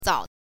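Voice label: "zao", Mandarin Chinese, neutral tone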